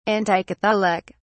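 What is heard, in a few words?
"anticatholic", English, British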